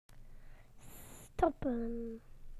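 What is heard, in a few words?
"to stop", British English; PictureDictionary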